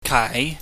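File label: "kaai", Cantonese, mid rising tone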